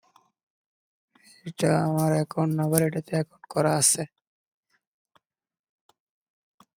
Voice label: voice message